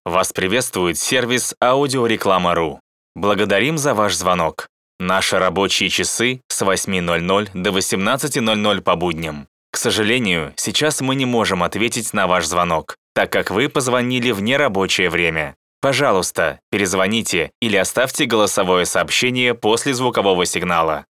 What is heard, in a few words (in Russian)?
IVR